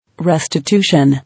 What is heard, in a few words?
"Restitution", English